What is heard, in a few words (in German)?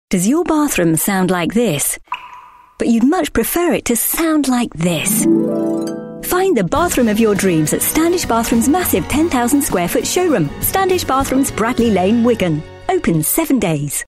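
British English Werbung Dynamisch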